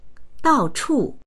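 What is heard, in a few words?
"dao4chu4", Mandarin Chinese; 到处; いたるところ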